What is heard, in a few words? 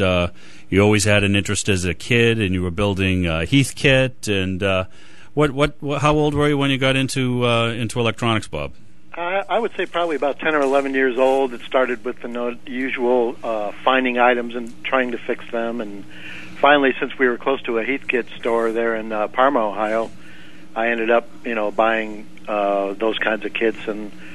Tube Talk interview